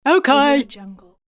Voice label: female goofy "okay"

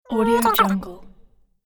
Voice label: cartoon chatter

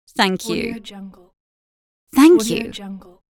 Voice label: thank you british female voice

